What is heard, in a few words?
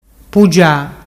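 "pugia", Ligurian dialect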